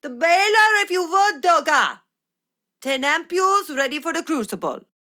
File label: Ost Fem en; Cetus